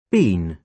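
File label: "bean", British English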